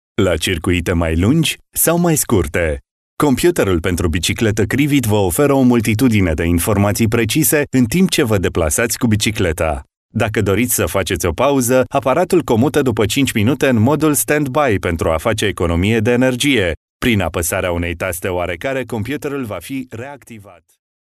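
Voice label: Romanian, male, e-learning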